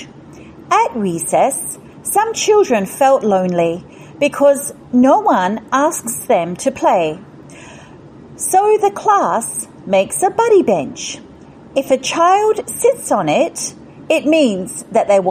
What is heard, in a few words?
English Ambassador Script